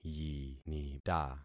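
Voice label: "yinita", concatenated syllables; Affixing experiment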